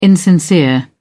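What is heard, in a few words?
"insincere", British English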